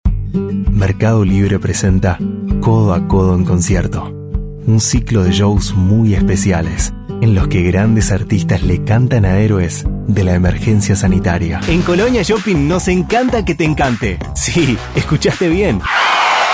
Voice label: Spanish Commercial Argentinean Uruguayan

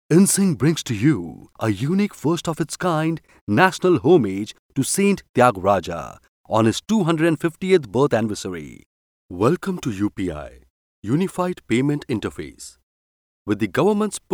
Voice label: ENGLISH Voice

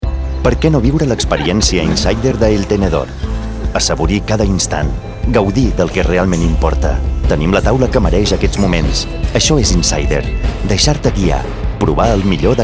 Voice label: catalan PRESENTATION

